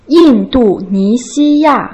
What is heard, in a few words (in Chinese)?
yin4 du4 ni2 xi1 ya4, Putonghua; Uni1Lesson2